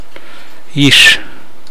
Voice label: bamboo, Namolukese